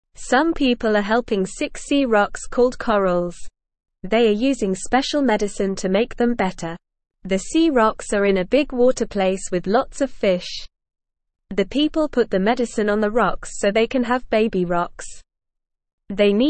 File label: Beginner NORMAL Reading, English; English Newsroom